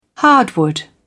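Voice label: "hardwood", British English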